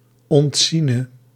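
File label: "ontziene", Dutch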